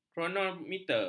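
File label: "kronometer", Malay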